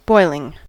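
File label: "boiling", US English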